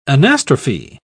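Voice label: "anastrophe", English, British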